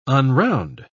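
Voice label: "unround", English, British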